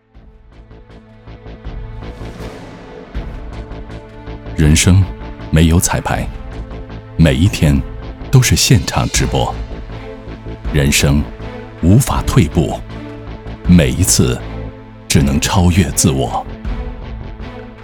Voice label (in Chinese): 男 广告 浑厚磁性; 中国好声音